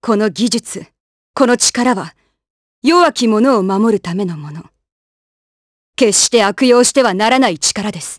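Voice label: vox Japanese; Glenwys; kingsraid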